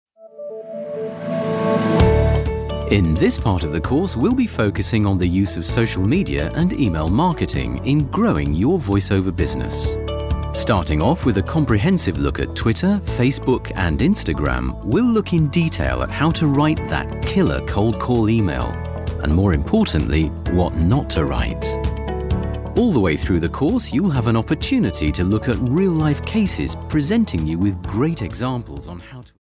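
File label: elearning, female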